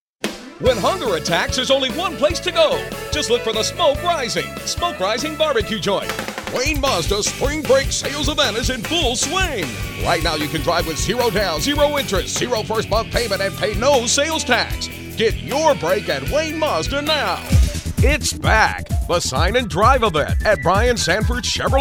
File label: male; voice over talent